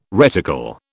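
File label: "reticle", British English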